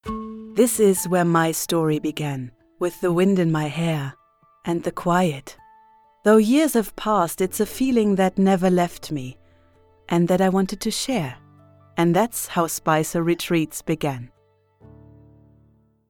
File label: Englisch Imagefilm